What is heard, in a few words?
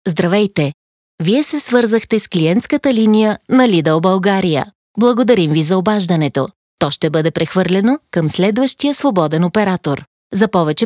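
Bulgarian, IVR; GoLocalise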